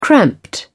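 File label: "cramped", British English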